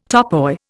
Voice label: "topoi", Indian

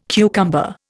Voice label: "cucumber", Indian

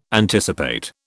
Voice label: "anticipate", British

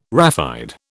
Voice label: "raphide", British

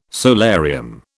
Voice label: "solarium", British

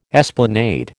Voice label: "esplanade", American

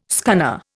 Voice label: "scunner", Indian

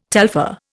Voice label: "telpher", Indian

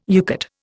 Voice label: "UCATT", Indian

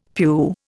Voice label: "pew", Indian; Dictionary

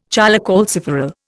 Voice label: "cholecalciferol", Indian

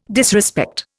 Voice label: "disrespect", Indian

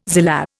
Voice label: "zillah", Indian

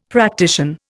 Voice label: "practician", Indian; Dictionary

